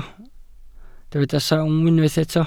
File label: "sawm", welsh